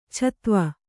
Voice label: "ಛತ್ವ", kannada

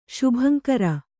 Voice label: "ಶುಭಂಕರ", Kannada

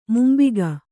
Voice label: "ಮುಂಬಕ್ಕಿ", Kannada

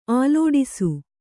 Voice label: "ಆಲೋಡಿಸು", kannada

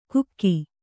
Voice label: "ಕುಕ್ಕಿ", Kannada

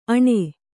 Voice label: "ಆಣೆ", Kannada

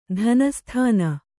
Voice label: "ತನುಸ್ಥಾನ", Kannada

